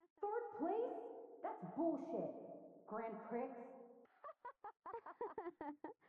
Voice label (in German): gang schule voices; life is strange